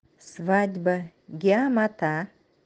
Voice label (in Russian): свадьба нанайский